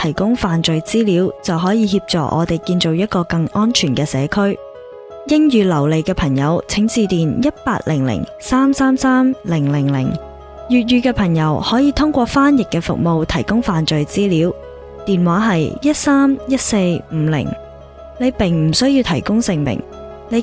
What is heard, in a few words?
Cantonese Crime Stoppers